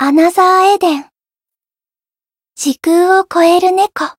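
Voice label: Voice title, Japanese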